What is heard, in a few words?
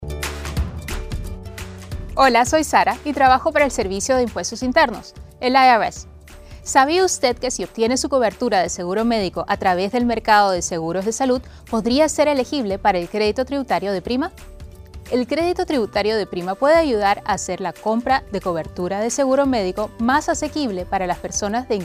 Premium Tax Credit Spanish